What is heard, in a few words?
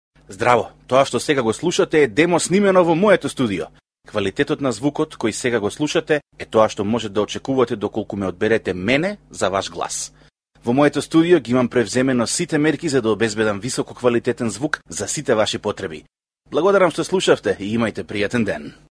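Macedonian, male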